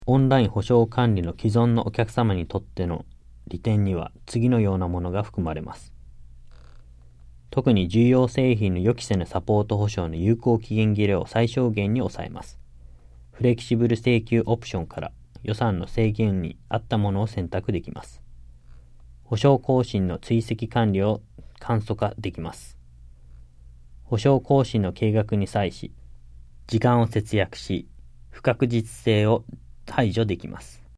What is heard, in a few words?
JAPANESE; delltranslations